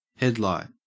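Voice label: "headlight", Australian English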